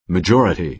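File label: "majority", American English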